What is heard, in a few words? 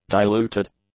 "diluted", english; adjectives